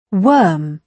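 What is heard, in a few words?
"worm", British English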